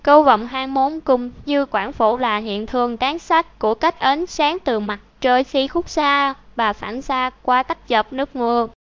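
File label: speaker, American English; piper-voices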